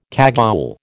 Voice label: "cagoule", American